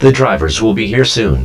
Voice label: robot vox